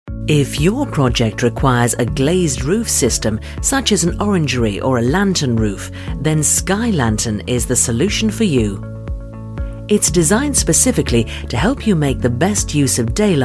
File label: corporate